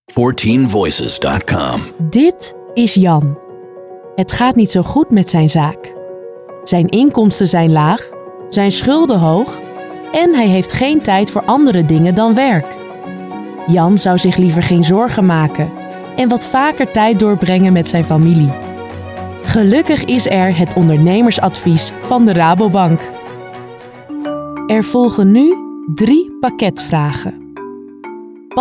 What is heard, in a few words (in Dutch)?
Narratief